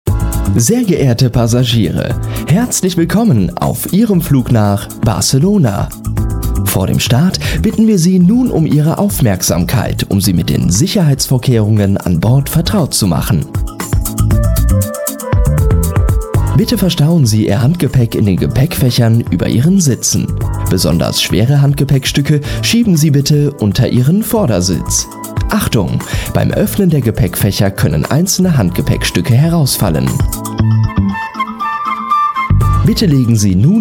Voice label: german voice over male elearning